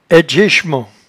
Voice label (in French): "aidgechement", patois jura